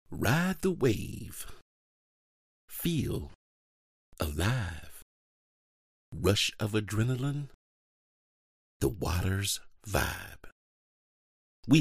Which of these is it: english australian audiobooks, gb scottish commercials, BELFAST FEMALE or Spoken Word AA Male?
Spoken Word AA Male